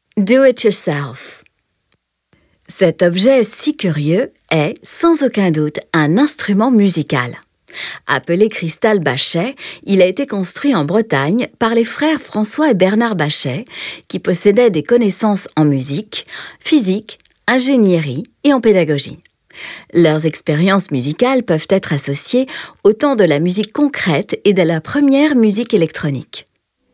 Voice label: audioguia french; delamusica